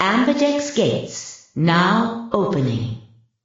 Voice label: announcer gate opening